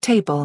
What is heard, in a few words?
"table", English; express english